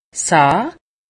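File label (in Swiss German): "sahn", Alsatian; medecine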